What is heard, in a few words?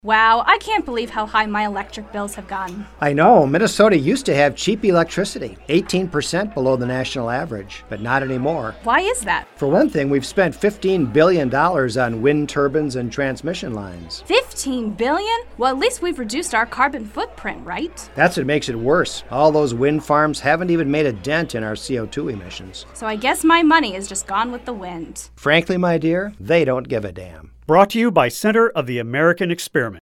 spot; GreenEnergy; Stereo